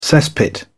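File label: "cesspit", British English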